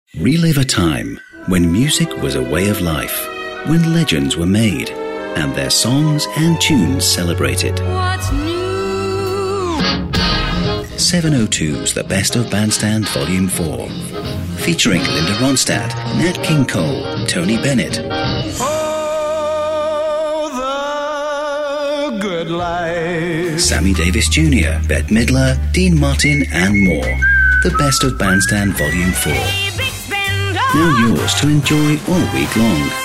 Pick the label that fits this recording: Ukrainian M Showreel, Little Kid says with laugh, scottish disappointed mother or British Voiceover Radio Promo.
British Voiceover Radio Promo